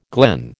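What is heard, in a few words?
"glen", American English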